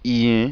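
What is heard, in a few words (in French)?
"ien", French nasal diphthong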